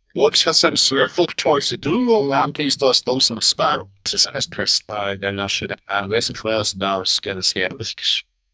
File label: officers discussing the, human voices